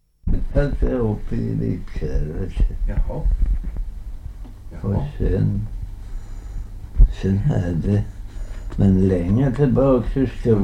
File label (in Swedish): dialekter, svenska sagor; Folkminnen